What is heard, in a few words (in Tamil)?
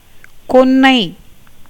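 "கொன்னை", Tamil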